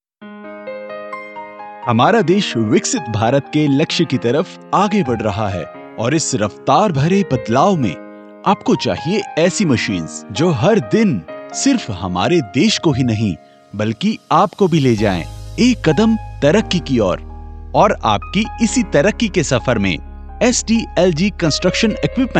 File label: Hindi; sdlg